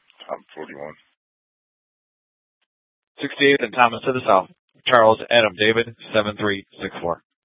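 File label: Scottsdale Police South Dispatch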